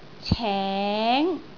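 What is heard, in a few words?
"ceng2", Cantonese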